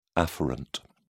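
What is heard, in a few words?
"afferent", English, uk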